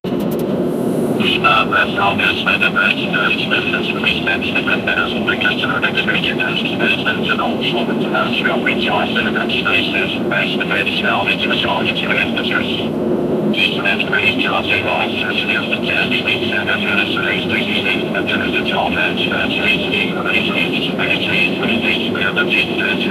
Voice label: old japanese cockpit voic, aviation

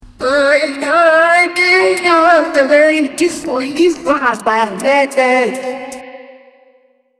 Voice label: a mans voice from a, speech

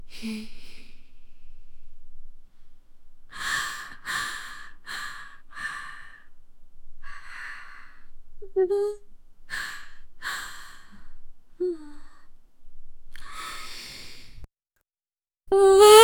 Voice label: the voice of the girl, wellness

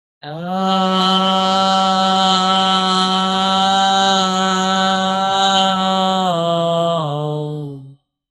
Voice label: maqrib prayer, religious

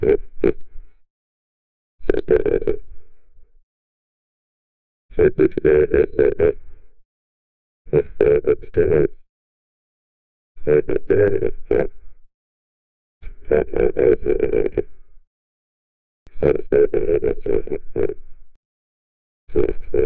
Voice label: 8bit voice

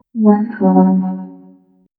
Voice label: female voice softly says, detached

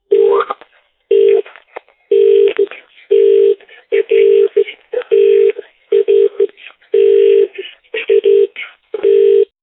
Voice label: man saying, electronic

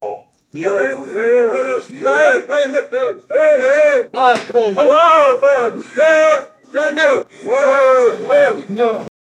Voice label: men say crousti poop, comic

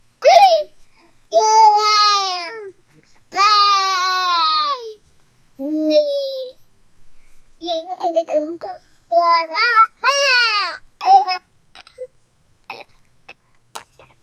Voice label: 12 year old boy talking a

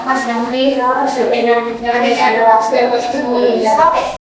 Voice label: children talking about, conversation

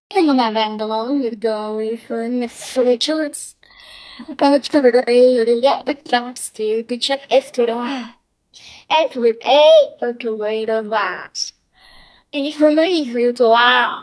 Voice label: a teenage girl with an, dialogue

